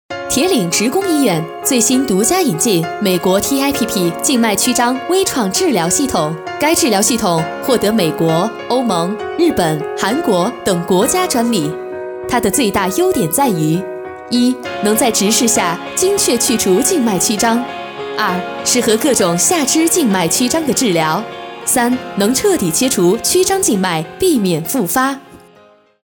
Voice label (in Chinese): 广告 医院医药 讲述; 医院新引进广告